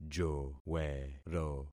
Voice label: "jowero", concat syllables; Affixing experiment